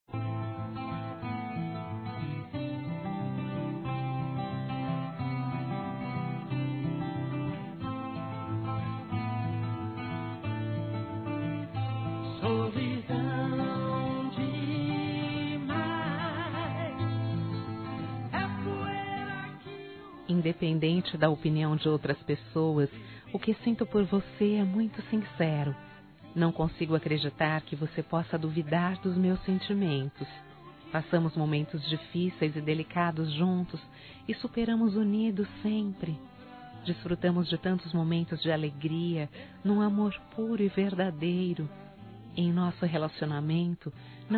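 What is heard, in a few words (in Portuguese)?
romântico, feminino; ESTÃO SEPARADOS; Telemensagens; Românticas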